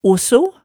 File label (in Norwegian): "osso", Hemsedal